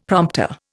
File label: "prompter", Indian; Dictionary